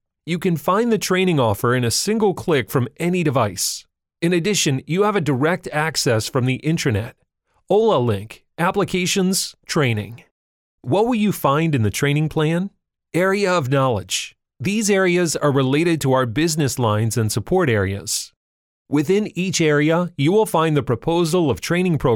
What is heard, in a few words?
locutor norteamericano english american voiceover, American male voice talent; locutor estados unidos, english american voice over